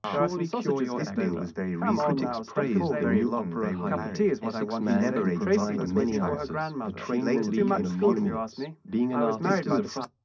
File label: male mix; SiSEC10; underdetermined